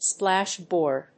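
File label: "splashboard", English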